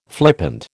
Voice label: "flippant", American

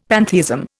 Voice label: "pantheism", Indian; Dictionary

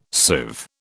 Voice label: "sieve", British

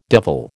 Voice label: "devil", American

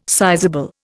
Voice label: "sizeable", Indian

